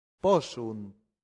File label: "possunt", latin; santillana